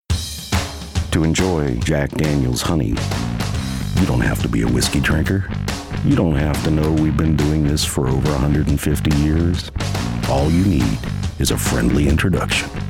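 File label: voice over demos; Jack Daniels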